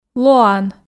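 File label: "лоан", Komi, female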